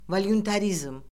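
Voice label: "volyuntarizm", Azerbaijani; Orfoqrafiya Lüğəti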